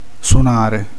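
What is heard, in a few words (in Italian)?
"suonare", Italian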